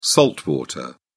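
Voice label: "salt water", British English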